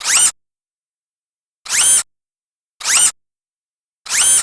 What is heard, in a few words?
thinking